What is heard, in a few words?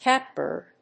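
"catbird", English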